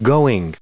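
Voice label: "going", English, us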